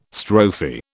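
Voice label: "strophe", British English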